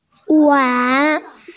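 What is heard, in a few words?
"wan2", Mandarin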